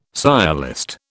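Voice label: "sciolist", British English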